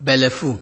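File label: "belleful", Nigerian Pidgin, male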